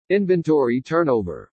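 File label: INVENTORY TURNOVER, ENGLISH PRONUNCIATION; ROTACIÓN DE INVENTARIOS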